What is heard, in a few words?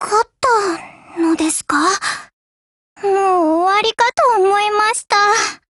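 WIN Japanese, Voice; GF Alarm; Chauchat M1915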